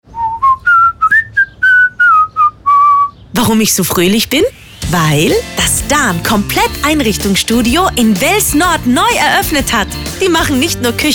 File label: SPOT; DAN Wels